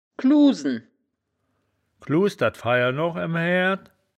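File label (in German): "gluusen", wittlicher woerterbuch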